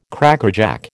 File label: "Crackerjack", American